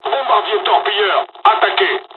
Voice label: japanese first wave, dialogs french; War Thunder